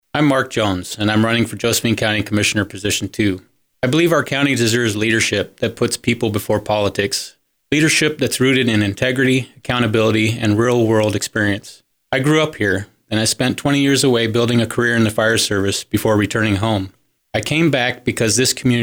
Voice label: radio ads